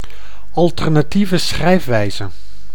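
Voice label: "alternatieve schrijfwijze", Dutch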